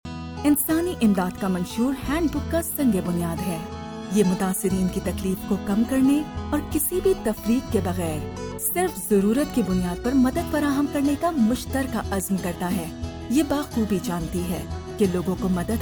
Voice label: female urdu voice over narrative